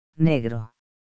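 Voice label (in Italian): "nero", italiano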